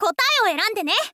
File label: "kotaewoerandene", girl; voice